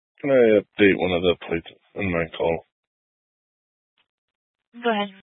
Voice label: Police Dispatch